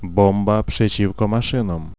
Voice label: Veh Bomb, vocals pl; fan made

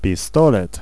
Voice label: Pistol, vocals pl; fan made

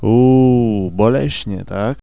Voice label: Ooh Did That Hurt, vocals pl; fan made

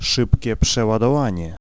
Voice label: Fast Reload, vocals pl; fan made

